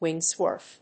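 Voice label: "windsurfer", English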